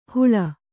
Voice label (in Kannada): "ಹೂಳ್", Kannada